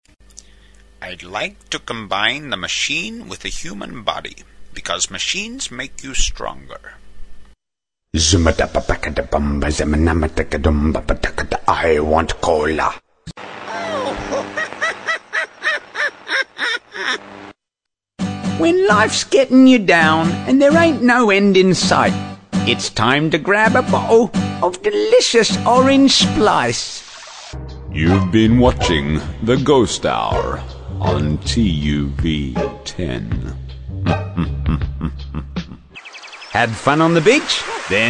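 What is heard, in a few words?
Character, narrator UK male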